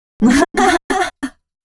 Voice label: female c# 138, Dance Ultra Voice; Mutekki Media

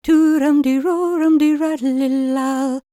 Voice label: WELSH F, World Voices

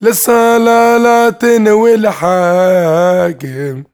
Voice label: MAN VOICE, Arabian Traditions

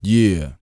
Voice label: YEAH, Vocal R&B & Dance, HIP-HOP; ILIO; Vocal Planet

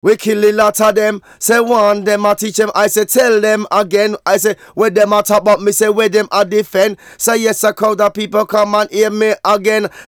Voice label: Vocals; Jungle Frenzy 1

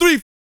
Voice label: COUNT, Vocal, R&B & Dance; ILIO

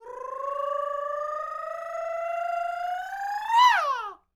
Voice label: MEXICO VOICE, MEXICAN; ILIO; Vocal Planet VOL-5

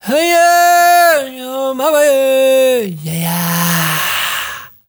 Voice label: ZULU MALE, DRY; Spectrasonics; Heart of Africa 2; S.MALEDRY

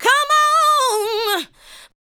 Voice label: SPOKEN VOX, ADLIPS

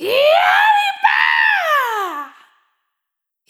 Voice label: "Cabaret", Vocal Samples, Words; Sonic Foundry (Sony Creative Software) - Pocket Diva World Class Vocal Samples

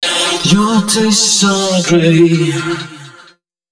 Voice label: male d# 130, short hooks, Dance Voice

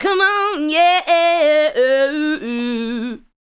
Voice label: ADLIBS 90; Ueberschall; Jam Box

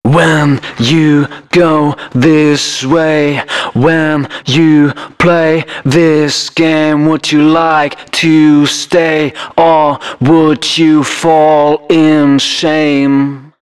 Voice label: male d#130, spoken hooks

